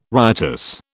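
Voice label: "riotous", British English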